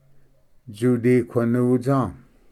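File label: "tsudiquenutsa", Cherokee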